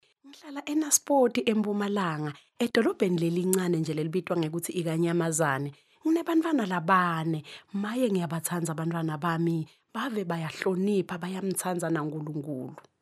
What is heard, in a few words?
female swazi voice over narrative